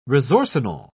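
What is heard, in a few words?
"resorcinol", British English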